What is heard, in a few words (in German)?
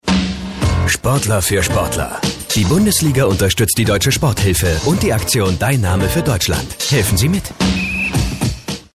Fremdtake Neutral